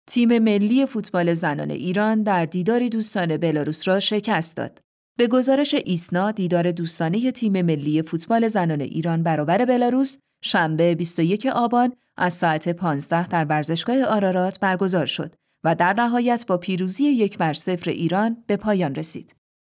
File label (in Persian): بانک صدا خبری